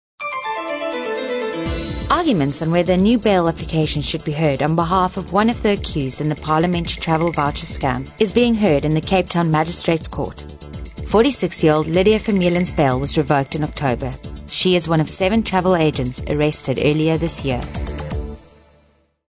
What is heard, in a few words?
Corporate